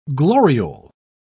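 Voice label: "gloriole", English, British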